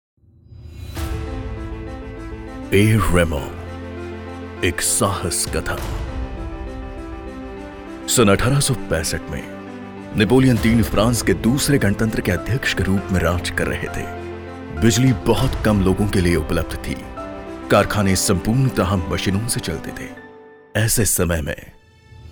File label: Hindi Corporate